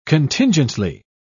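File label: "contingently", British English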